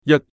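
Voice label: "jat1", Cantonese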